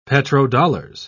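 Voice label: "petrodollars", English, British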